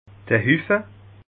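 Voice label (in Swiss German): "huffe", Alsatian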